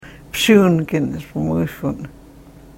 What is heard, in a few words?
karuk